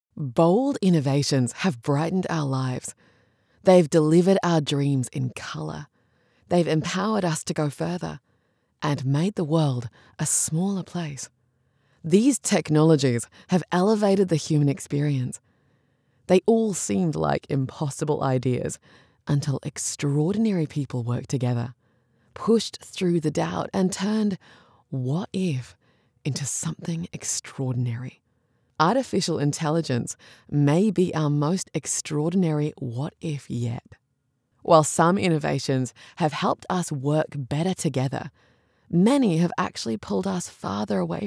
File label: english australian corporate videos